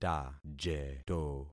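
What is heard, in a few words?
"tajeto", concatenated syllables; Affixing experiment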